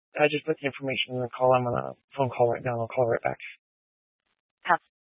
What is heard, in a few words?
Police Department Dispatch; Grand Junction